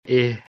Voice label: "Eh", Dogri